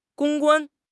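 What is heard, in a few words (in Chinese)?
"公关", Chinese; HSK6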